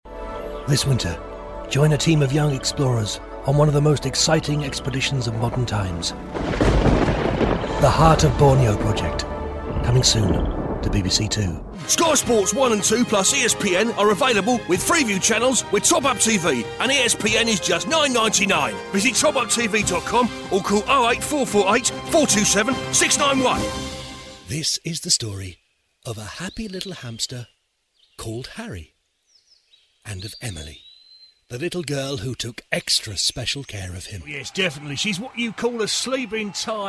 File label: Adverts Narration; Harry The Hamster